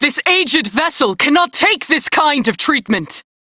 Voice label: Crew Ship Low, Female; Dialog; en; Warframe; Railjack Crew; New Loka